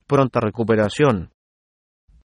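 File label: get well soon, Spanish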